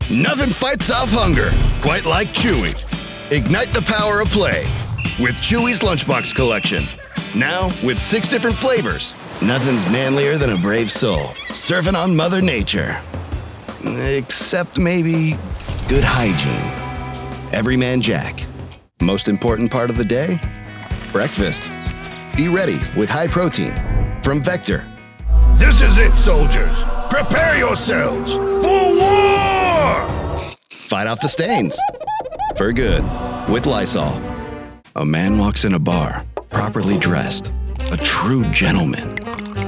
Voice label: commercial